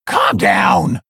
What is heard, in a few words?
Female Quilboar Attack